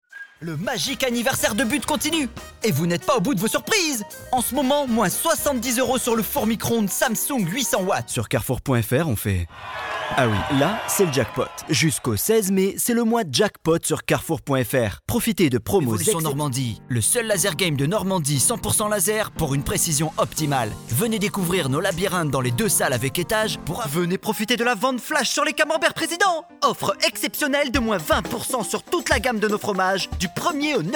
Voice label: French Commercials